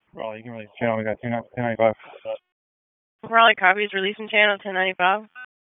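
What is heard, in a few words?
Raleigh Police Southeast District Dispatch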